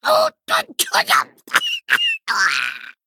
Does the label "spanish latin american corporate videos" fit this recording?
No